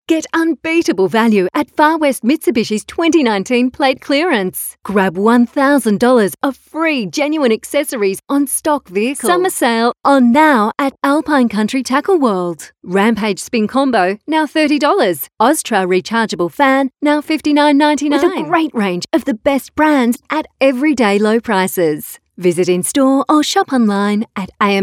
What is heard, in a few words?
hard sell